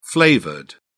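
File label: "flavored", British English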